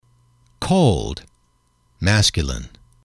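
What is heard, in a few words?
"cold", English, male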